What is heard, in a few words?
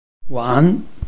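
"wan3", Mandarin Chinese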